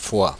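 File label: "Foix" French; Фуа (город)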